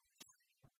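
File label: "нюрсялӧм", Komi, female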